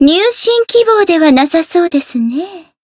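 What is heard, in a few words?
Voice Japanese; rosetta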